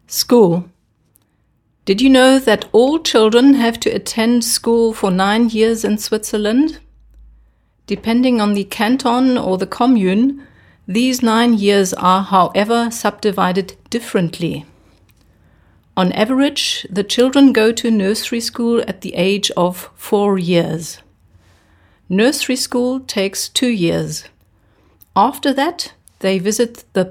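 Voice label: English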